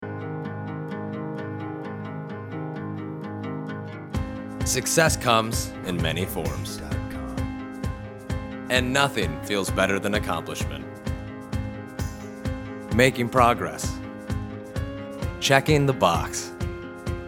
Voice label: Brand Vid VO Guitar; CPL

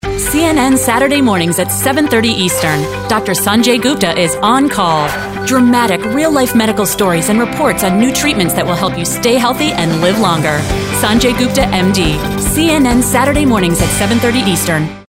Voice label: Radio; CNN

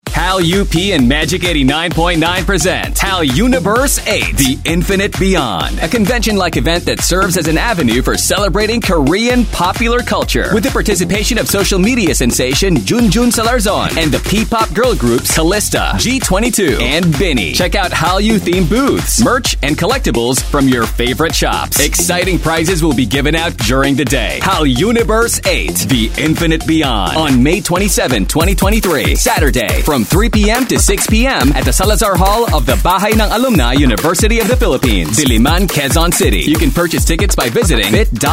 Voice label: Radio Announcement; KPOP PPOP EVENT; Hall UP Convention

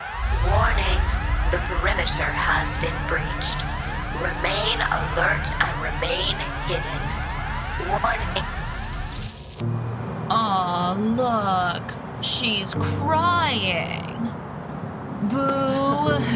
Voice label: Animation Reel

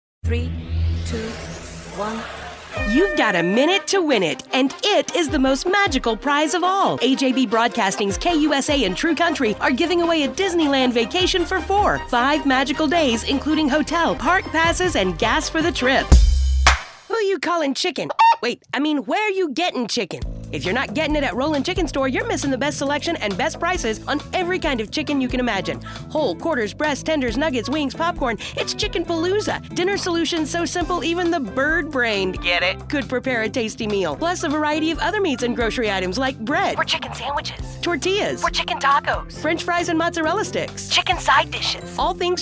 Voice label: Commercial

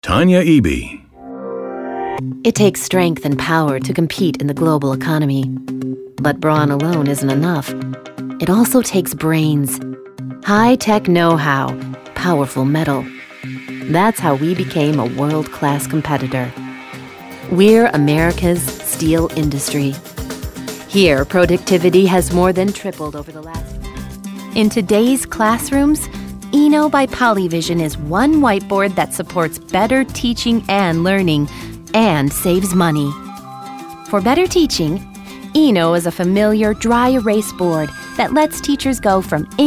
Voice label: Narration Voice

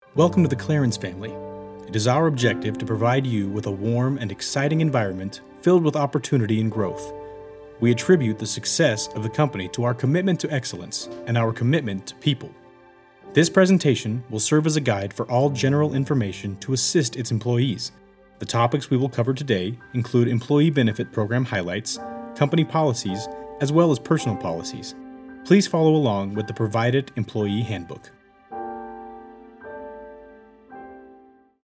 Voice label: Educational Format

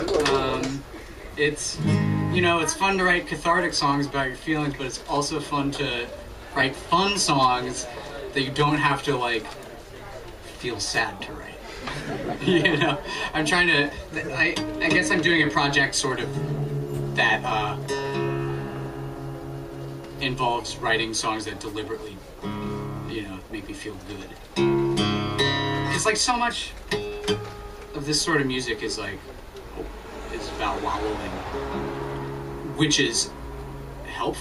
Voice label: Banter

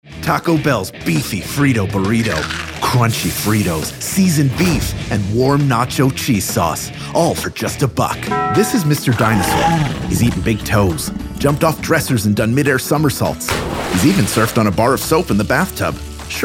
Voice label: Commercial VO

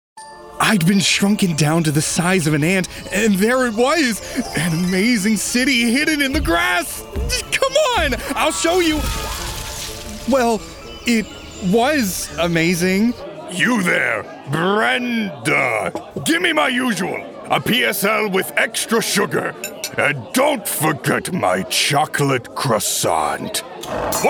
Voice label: Animation Demo

